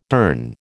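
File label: "durn", American English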